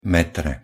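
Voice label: "metre", Slovak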